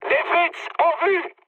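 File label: enemy detected, mood high, French; War Thunder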